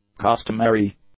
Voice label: "customary", English; adjectives

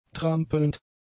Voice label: "trampelnd", German; adjectives

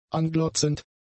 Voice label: "anglotzend", German; adjectives

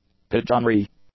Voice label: "pigeonry", English; nouns

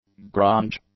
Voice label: "grunge", English; nouns

